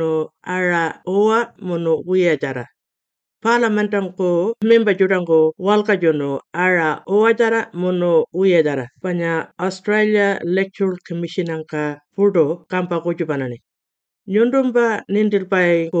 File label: Referendum Booklet, Yankunytjatjara